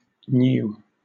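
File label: "gnu", British English; pronunciations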